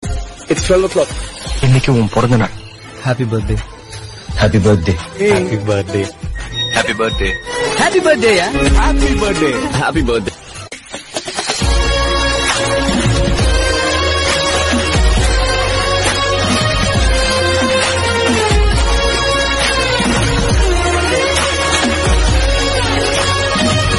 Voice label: Birthday Wishes Tamil Happy Birthday Dialogue; Song Duration:-24 Sec